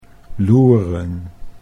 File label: "loeren", Dutch